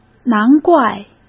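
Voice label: "难怪", Mandarin Chinese; hsk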